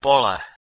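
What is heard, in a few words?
"polh1", Proto-Indo-European; eastern origins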